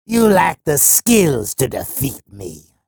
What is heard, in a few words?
chatter positive; sorlag; frag